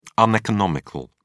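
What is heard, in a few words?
"uneconomical", British English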